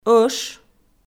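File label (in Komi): "ӧш", Komi, female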